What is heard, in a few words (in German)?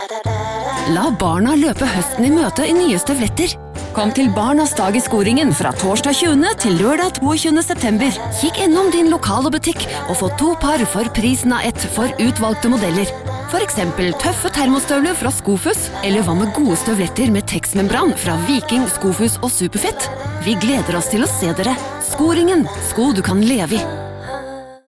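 Norwegian, Werbung Dynamisch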